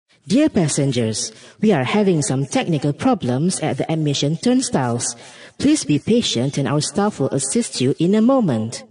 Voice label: announcement Broadcast